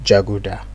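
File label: "jaguda", Nigerian Pidgin, male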